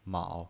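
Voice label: "mao", pinyin voice, Mandarin Chinese, third tone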